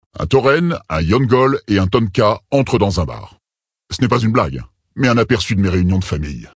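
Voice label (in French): Male Blague, voix françaises; Tauren Haut Roc; Bar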